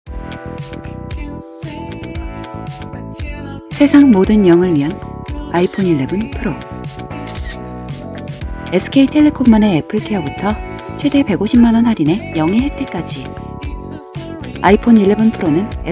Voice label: 담백한 세련된

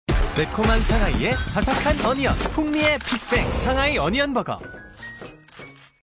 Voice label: 광고; 상하이어니언버거

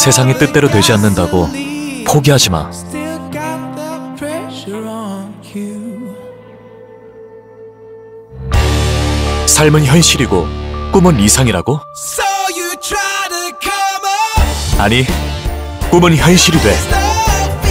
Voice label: 광고 젊음 의지 세련됨

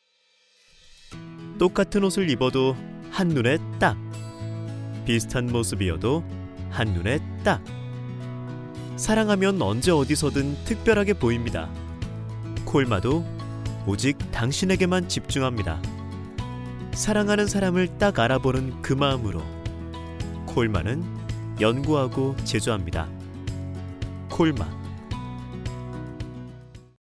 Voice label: 광고 산뜻한 밝은

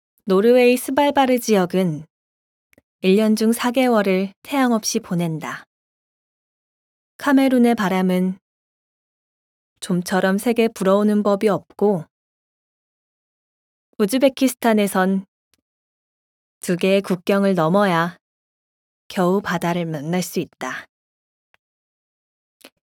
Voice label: 성우 차분한

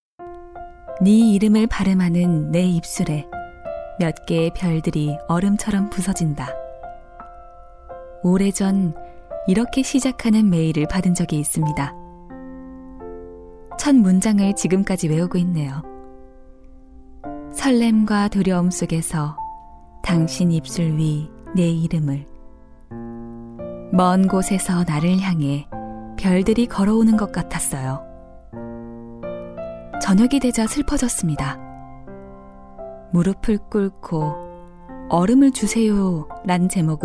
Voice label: narration 차분한 세련된 30대

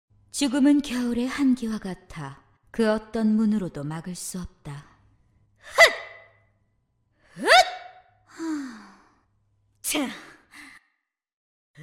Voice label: 20대; 시크한; 도도함

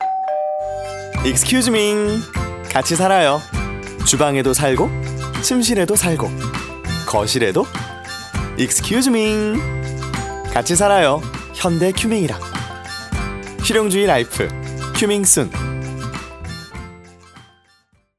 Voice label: 광고 밝음 활기찬; 현대큐밍

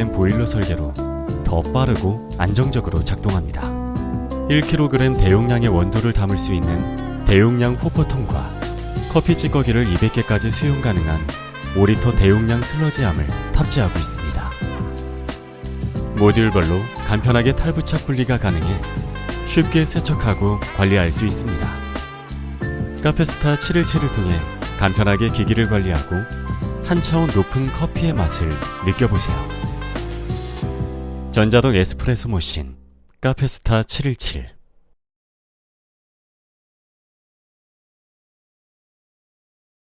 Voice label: 부드러운 설명 목소리

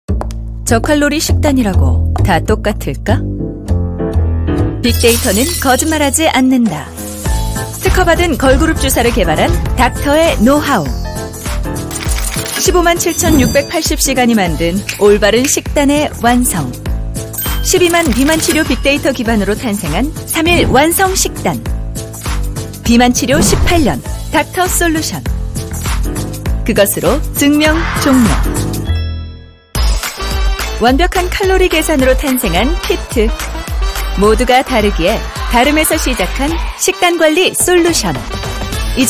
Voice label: 시크한 세련된

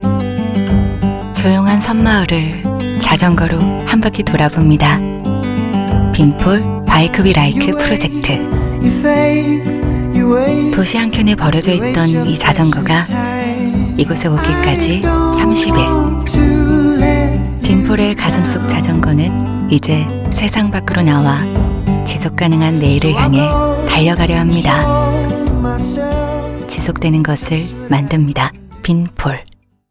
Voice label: 따뜻한 20대; 빈폴 바이크 위 라이크

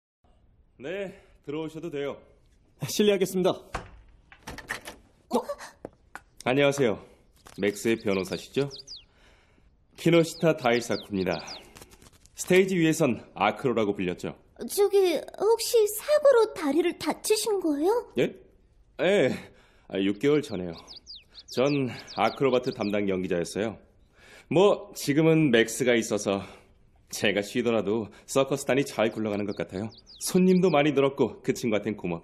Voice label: 애니 따뜻한 30대; 역전재판

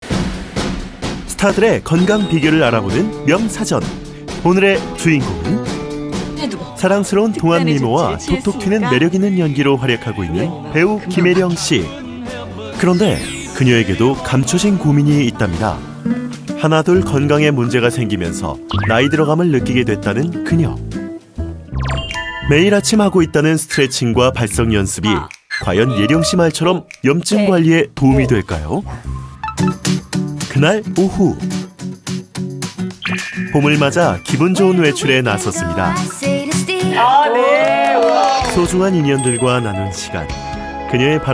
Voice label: 건강정보 프로그램 밝고 친절한 톤

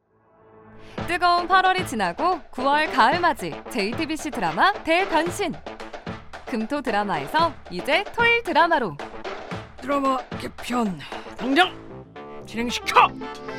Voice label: 프로그램 소개성대모사 20대

